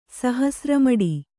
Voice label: "ಸಹಸ್ರಮಡಿ", Kannada

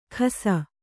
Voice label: "ಖಸ", Kannada

